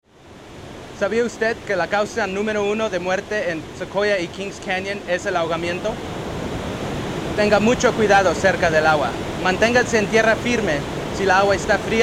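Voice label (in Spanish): Water Safety Spanish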